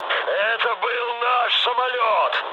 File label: player killed ally, mood low, speech ru; War Thunder